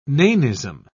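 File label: "nanism", British English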